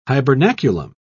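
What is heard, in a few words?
"hibernaculum", English, British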